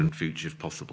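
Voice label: "in future f possible", Standard Southern British; ɪn'fjʉʧɚf'pɒsɨbl; in future if possible; SYLLABIC CONSONANTS; Fricatives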